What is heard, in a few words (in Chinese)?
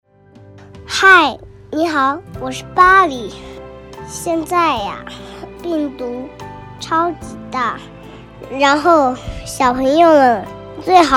动画 童声 五岁真男童; 武汉加油